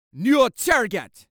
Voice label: Voices Jamaican New Target